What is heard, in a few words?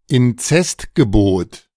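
"Inzestgebot", German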